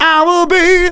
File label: Phrase Male; Vengeance Samples